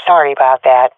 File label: sorry, swat fem; vo; Counter Strike Model Pack; CS:GO